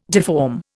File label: "deform", Indian